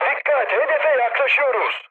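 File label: Turkish near waypoint, mood med, speech; War Thunder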